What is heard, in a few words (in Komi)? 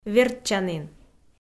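"вердчанін", Komi, female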